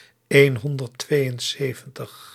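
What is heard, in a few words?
"eenhonderdtweeënzeventig", Dutch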